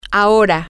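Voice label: now, Spanish, female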